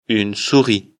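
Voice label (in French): "souris", French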